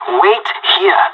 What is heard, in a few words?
radio bot wait, pirate; Counter Strike Model Pack; CS:GO